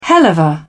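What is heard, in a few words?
"helluva", British English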